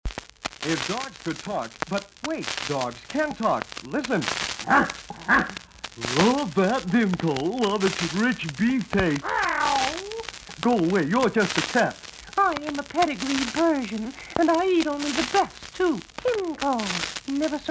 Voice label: Dog Food Commercial; Timco